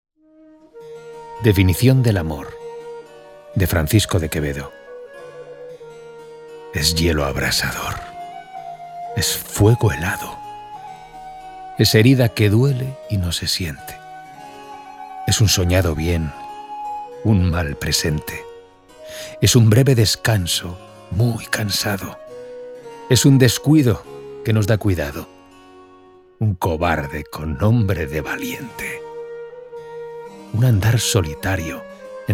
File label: spanish others